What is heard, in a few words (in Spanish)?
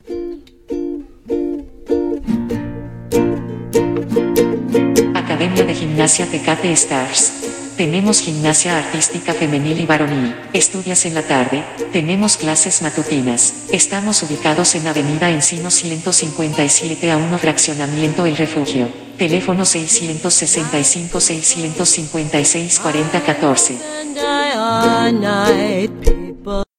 anuncio radio; tecate star radio tecate